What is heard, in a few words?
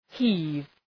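"heave", English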